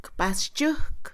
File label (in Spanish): Mixe, Mujer; cabaña; Casa; INALI